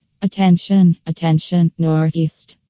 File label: close ne; Battleroyale; Civ; Voices